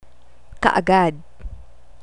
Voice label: "kaagad", Tagalog

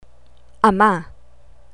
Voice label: "ama", Tagalog

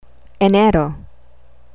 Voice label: "enero", Tagalog

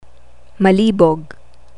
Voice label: "malibog", Tagalog

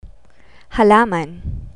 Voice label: "halaman", Tagalog; plants

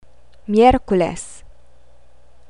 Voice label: "miyerkules", Tagalog

